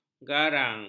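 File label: "garang", Malay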